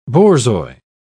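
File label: "borzoi", British English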